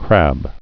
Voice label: "crab", English

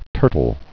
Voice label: "turtle", English

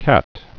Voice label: "cat", English

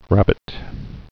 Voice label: "rabbit", English

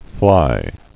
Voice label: "fly", English

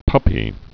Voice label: "puppy", English

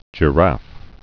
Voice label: "giraffe", English

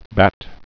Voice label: "bat", English

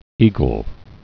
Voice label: "eagle", English

